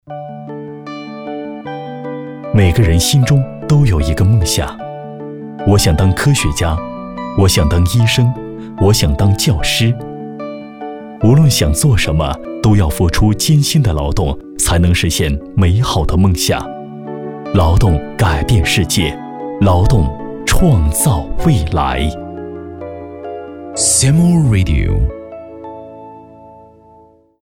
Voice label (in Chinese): 片花; 五一